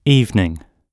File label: "evening", English